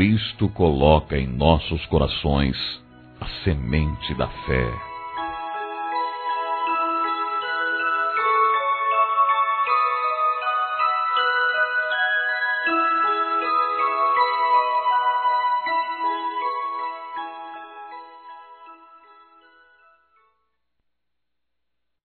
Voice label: Parab. Pais pelo Batizado m, Telemensagens Gospel masc

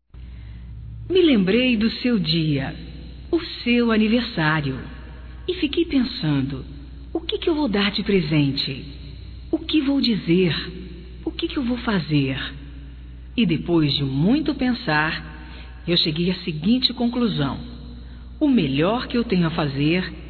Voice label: IRMA, Telemensagens, Aniversarios, fem; Telemensagens 2017

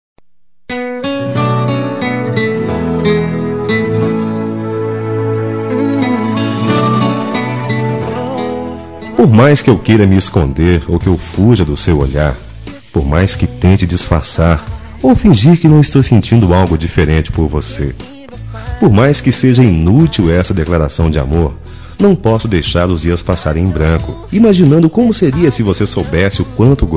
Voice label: ROM DECLARACAO MASC; Telemensagens; Declaração de amor; Telemensagens 2017

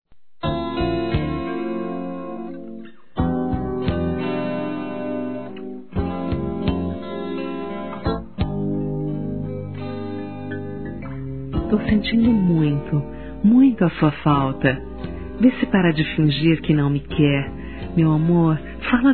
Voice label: Saudade Picante, Sensuais, fem; Telemensagens

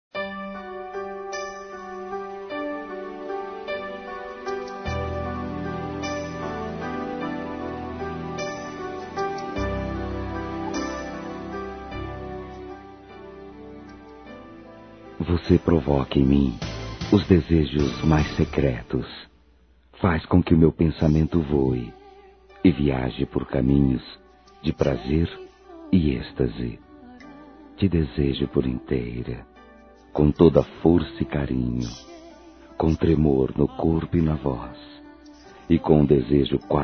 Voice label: Masculino, Telemensagens, Românticas; Telemensagens 2017

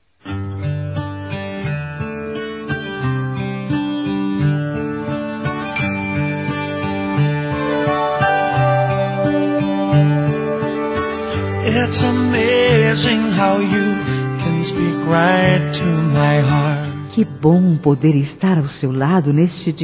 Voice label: Aniversário Romântico Suave Feminino; Aniversários

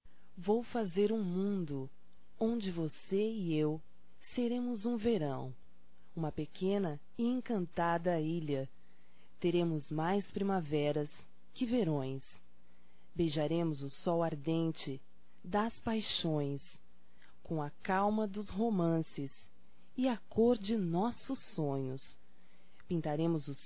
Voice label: Romantica Poesia Para Amantes Feminino; Românticas

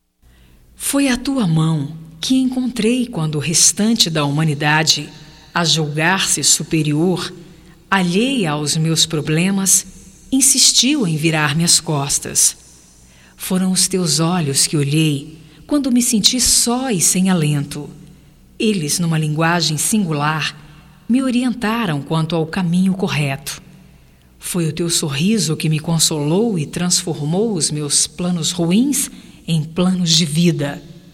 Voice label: AMIZADE GERAL, fem, Voz; Telemensagens 2017

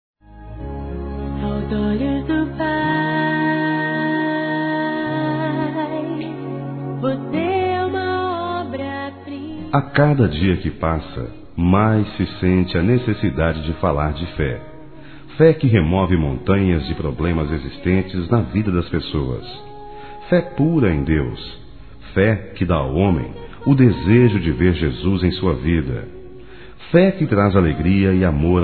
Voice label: Aniversário Geral Masculino, Telemensagens; ANIV. Evangelico

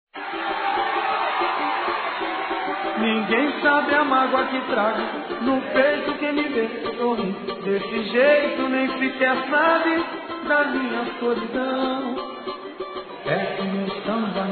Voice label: Bronca geral (M); Broncas

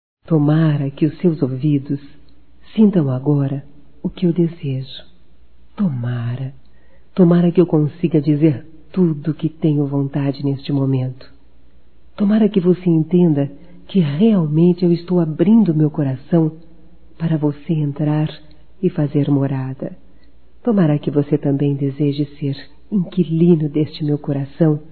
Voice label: CONQUISTA, Românticas fem

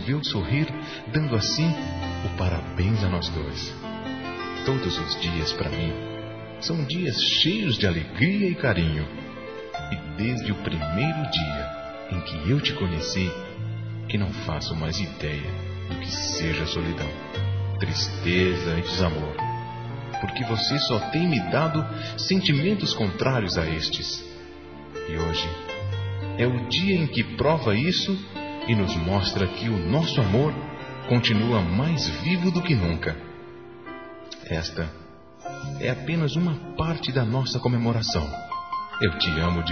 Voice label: ANIV. NAMORO M; Aniversários; ANIVERSARIO romantico; masc

